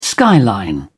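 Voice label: "skyline", British English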